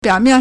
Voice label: "biao3mian4", Chinese